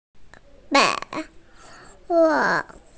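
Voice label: "féi wò", Mandarin Chinese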